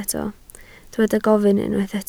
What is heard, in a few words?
"gofyn", Welsh